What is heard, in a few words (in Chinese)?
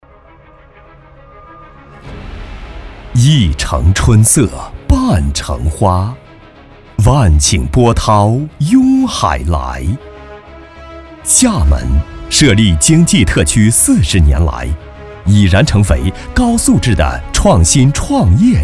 专题企业宣传; 厦门国寿